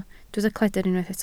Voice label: "cledr", Welsh